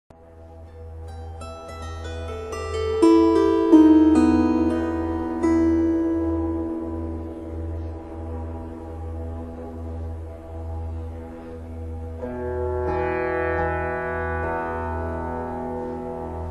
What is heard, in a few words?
Meditation Fire